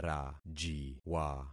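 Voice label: "rajiwa", concatenated syllables; Affixing experiment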